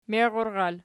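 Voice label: "meirural", nominative, Archi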